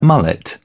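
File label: "mullet", British English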